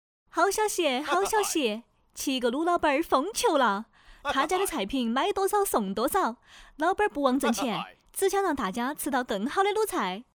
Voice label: 四川方言 女 好消息; 方言配音; 四川话

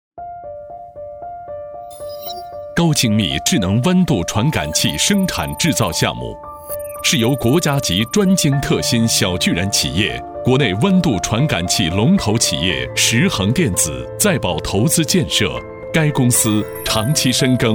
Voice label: 男国 科技 产品 生产制造项目

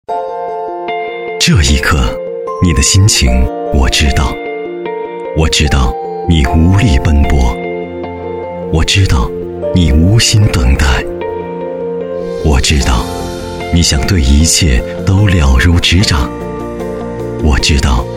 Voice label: 男 国 大音 广告; 方正医疗

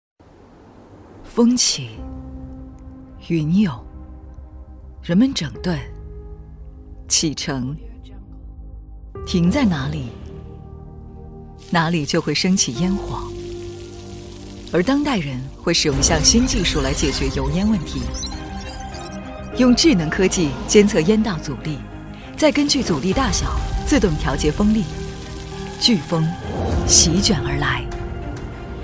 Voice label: 女 家电旁白 烟机; 万家乐烟机TAK8

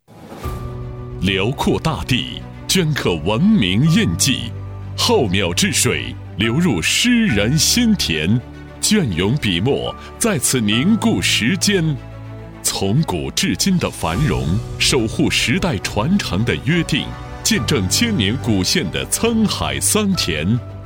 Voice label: 男 城市 旁白

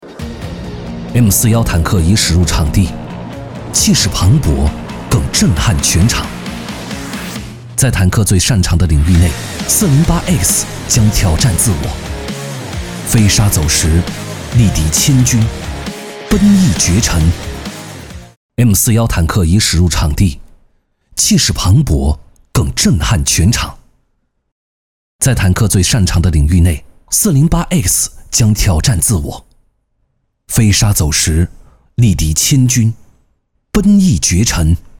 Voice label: 男国 汽车, 品质走心tvc; 东风标致; 汽车tvc广告

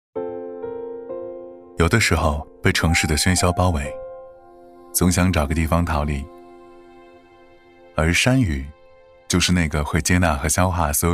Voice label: 男 素人 走心旁白; 素人风格男声; 山与SAP

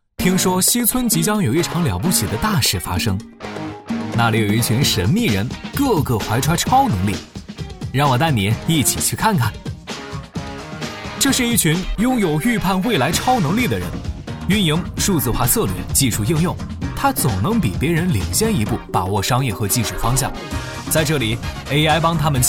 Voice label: 男 大音 素人讲述; 素人风格男声

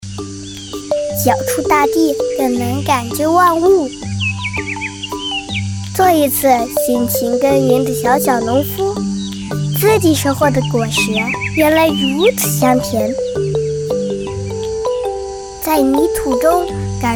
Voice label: 女国 8岁男真童 自述; 真童配音

